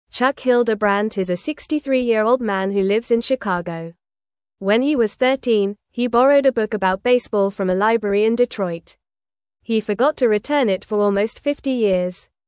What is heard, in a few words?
English Newsroom Lower Intermediate FAST Reading; Chuck Returns Library Book After 50 Years